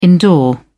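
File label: "indoor", British English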